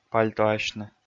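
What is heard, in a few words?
"пальтоасьны", Komi, male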